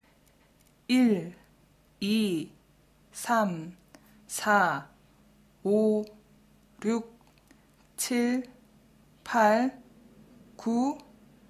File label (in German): Koreanisch